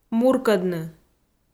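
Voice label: "муркӧдны", Komi, female; kpv audio dict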